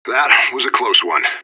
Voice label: radio bot end close; fbihrt